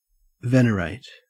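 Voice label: "venerate", Australian English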